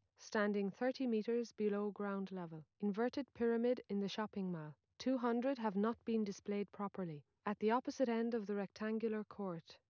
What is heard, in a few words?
female liverec 250ms src